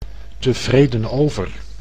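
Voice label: "tevreden over", Dutch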